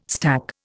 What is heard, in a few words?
"stack", Indian